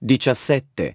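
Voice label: "seventeen", English; iOS 4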